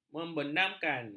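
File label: "membenamkan", Malay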